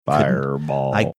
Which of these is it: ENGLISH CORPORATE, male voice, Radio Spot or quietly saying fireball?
quietly saying fireball